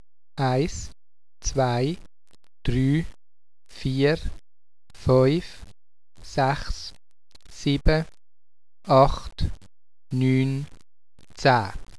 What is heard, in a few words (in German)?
counting swiss de zh; languages; words n phrases